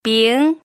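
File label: "bing", pinyin, second tone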